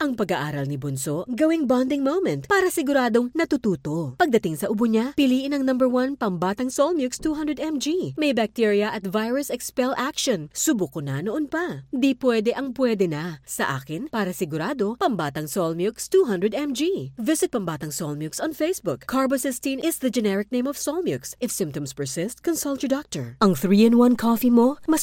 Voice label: Taglish (Motherly)